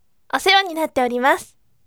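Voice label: voice "thank you for your support" Japanese, bright; osewani natteorimasu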